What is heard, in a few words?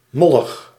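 "mollig", Dutch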